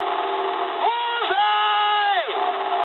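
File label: English bomb success mood high; War Thunder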